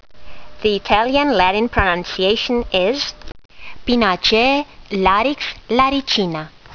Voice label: latin; larix laricina